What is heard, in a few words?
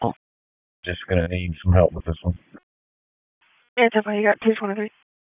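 Raleigh Police Northeast District Dispatch, p25; wake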